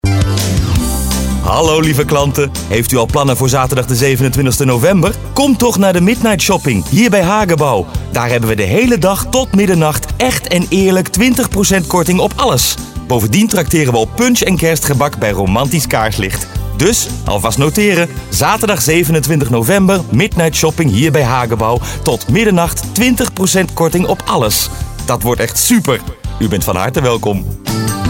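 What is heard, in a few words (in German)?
Dutch werbung, voicesamples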